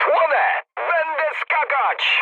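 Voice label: Polish on fire mood high; War Thunder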